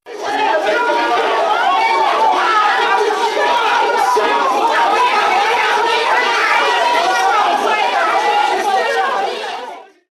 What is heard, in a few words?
overlapping arguing